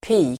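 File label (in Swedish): "pik", Swedish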